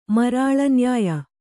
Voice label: "ಮರಾಳನ್ಯಾಯ", Kannada

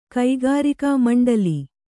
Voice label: "ಕಕ್ಕರಿಕೆ", Kannada

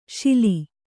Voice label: "ಶಿಲಿ", Kannada

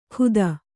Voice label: "ಖುದ", Kannada

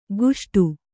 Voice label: "ಗುಷ್ಟು", Kannada

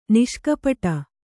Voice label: "ನಿಷ್ಕಪಟ", Kannada

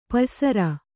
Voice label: "ಪಯ್ಸರ", Kannada

